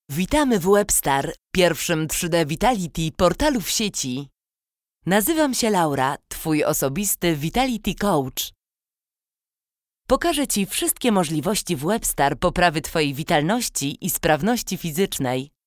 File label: female polish voiceover; locutora nativa polaca